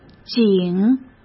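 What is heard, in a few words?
"井", Mandarin Chinese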